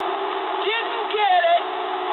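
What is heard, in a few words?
bomb failure mood medium, speech English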